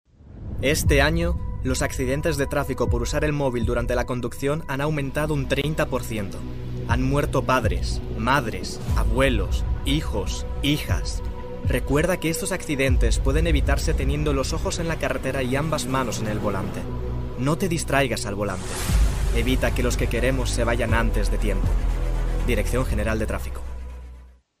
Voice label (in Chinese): 西班牙语